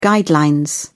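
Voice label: "guideline", British English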